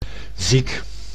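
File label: "ziek", Dutch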